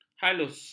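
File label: "halus", Malay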